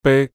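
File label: "be1", Cantonese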